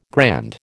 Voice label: "grand", American

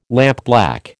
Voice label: "lampblack", American

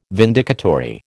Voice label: "vindicatory", American

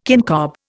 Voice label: "kincob", Indian

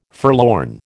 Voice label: "forlorn", American

